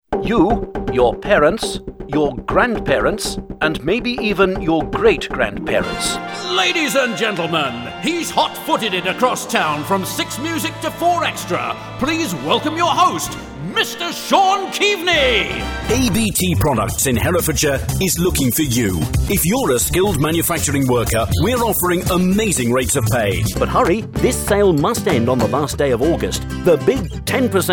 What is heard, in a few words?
Montage, voiceover